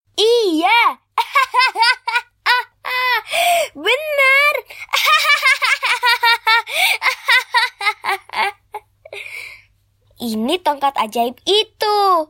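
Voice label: character INDONESIA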